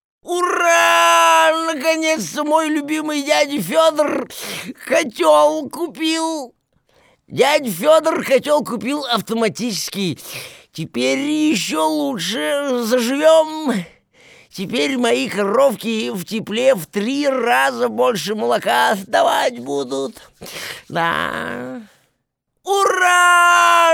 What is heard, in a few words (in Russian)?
пародия. Матроскин